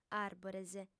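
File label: "àrbores", Sardinian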